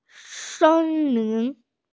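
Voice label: "sen lin", Mandarin Chinese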